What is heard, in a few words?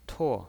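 "tuo", pinyin voice, fourth tone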